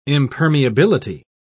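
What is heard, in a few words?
"impermeability", British English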